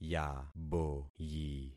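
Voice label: "yaboyi", concatenated syllables; Affixing experiment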